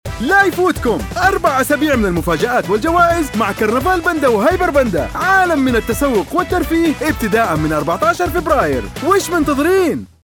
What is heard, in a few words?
radio; Panda